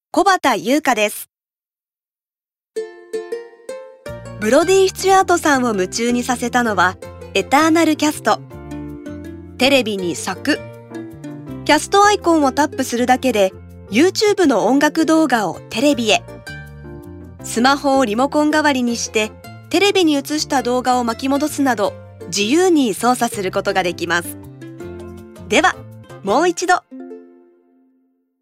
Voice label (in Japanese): ナチュラル